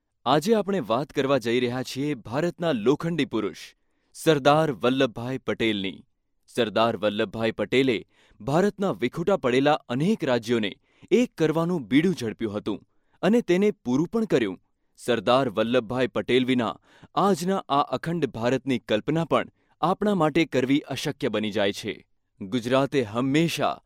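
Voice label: Gujarati, male, voice over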